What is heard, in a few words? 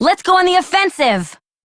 Operator Voice; Warframe; Operator Mission; Battle Begins